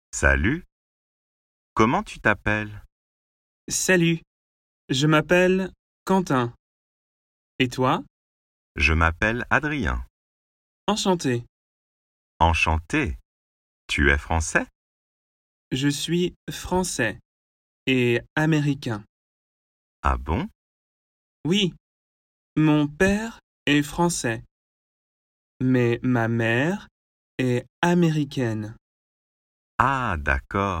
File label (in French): au bar slow